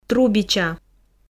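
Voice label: "трубича", Komi, female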